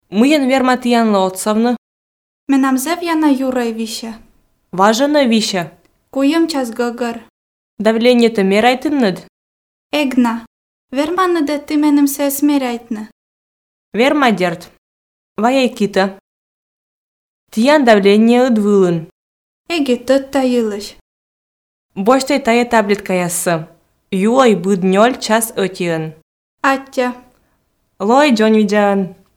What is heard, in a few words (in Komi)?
dialog apteka, Komi